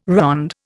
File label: "ronde", Indian